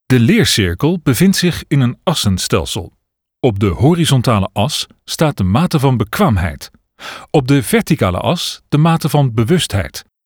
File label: Explainer Video